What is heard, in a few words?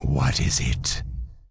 Undead Male Warrior NPC Greeting